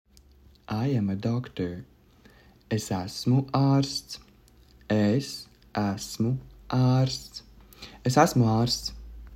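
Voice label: I am a doctor, Latvian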